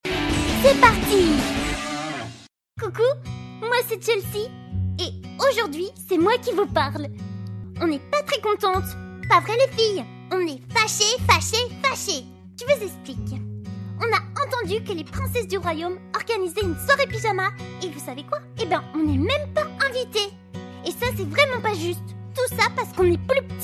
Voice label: female French cartoon animation; barbie; devine voices

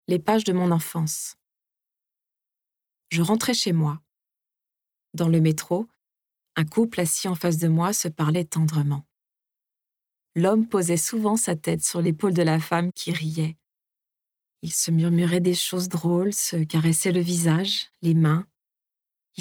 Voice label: female French audiobook narration

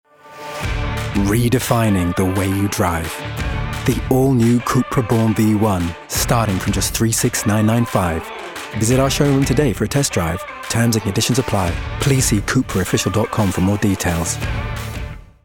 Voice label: male english commercial; Cupra

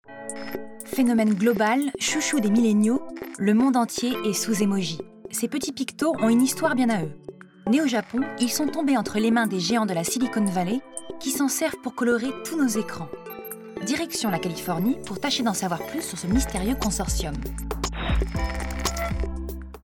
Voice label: female French documentary; emojination

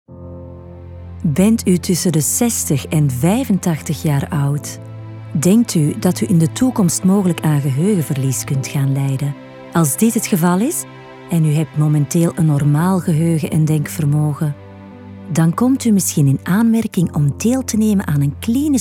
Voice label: female Flemish radio commercial

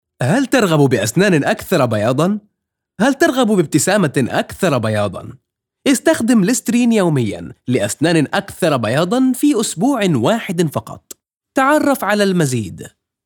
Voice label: male arabic msa modern standard arabic commercial

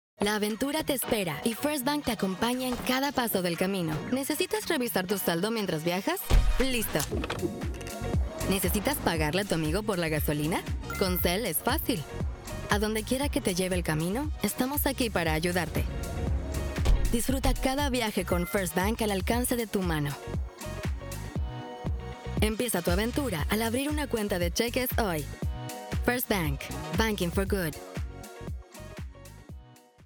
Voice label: female argentinian neutral spanish latam; tv spot; firstbank adventure awaits

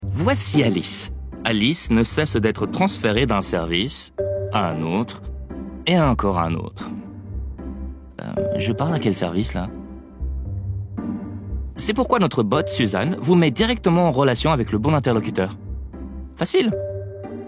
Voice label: male italian french commercial; majorel

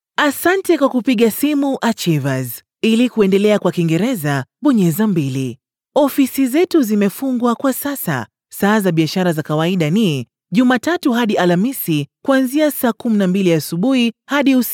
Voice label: female swahili ivr; devine voices

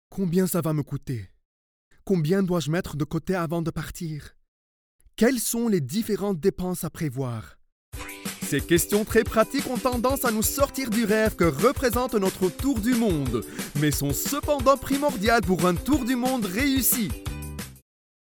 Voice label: male arabic french commercial

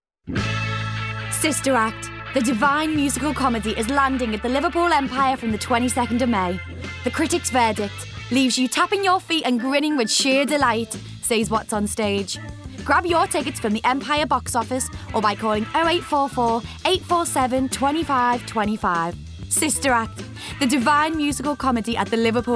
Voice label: female english compilation; english northern accents

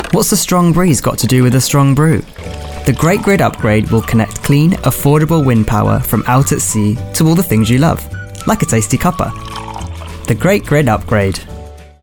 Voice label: voices male english; national grid group the great grid upgrade

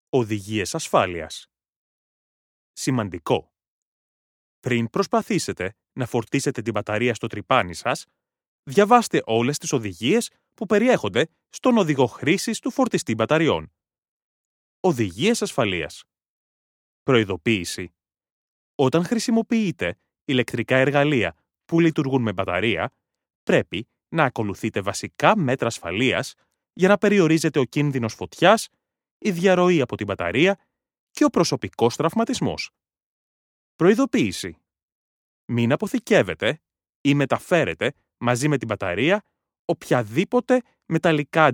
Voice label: male greek, manual

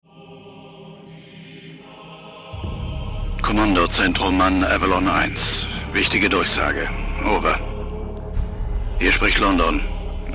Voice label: male german; playstation 3 resistance fall of man

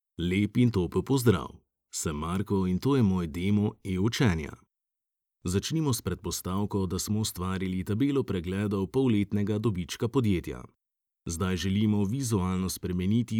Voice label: male slovenian e learning compilation; devine voices

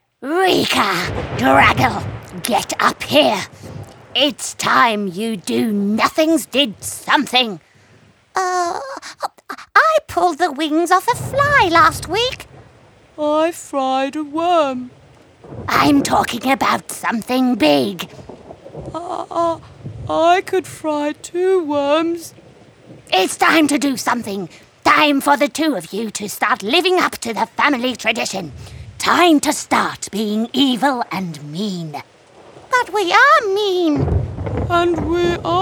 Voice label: female english character compilation

